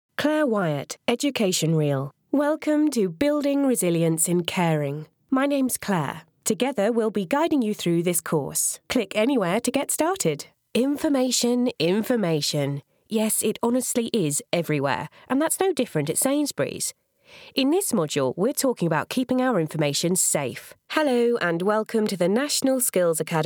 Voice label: Elearning